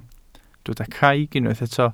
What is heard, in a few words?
"craig", Welsh